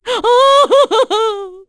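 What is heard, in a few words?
Vox Sad, Korean; heroes; Cecilia; kingsraid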